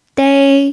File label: "dei1", chinese